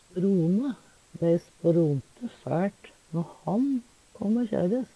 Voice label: sprone, Numedalsmål; Verb

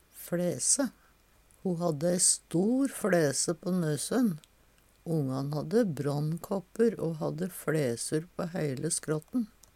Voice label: fLæse, Numedalsmål; Ho hadde ei stor fLæse på nøsøn. Ongan hadde brannkåppur o hadde fLæsur på heile skråtten.

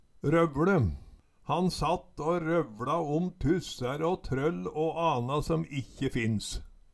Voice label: rovLe, Numedalsmål

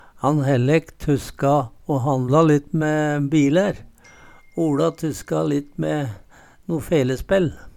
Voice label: tuske, Numedalsmål

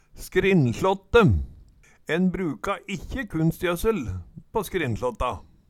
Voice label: "skrinnslåtte", Numedalsmål

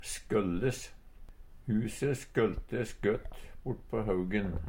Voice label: skølles, Numedalsmål; Verb

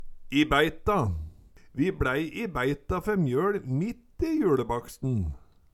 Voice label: i baeita, Numedalsmål; Vi bLæi i bæita fer mjøl mitt i juLebaksten

